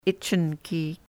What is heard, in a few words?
"ichi-nki", sahaptin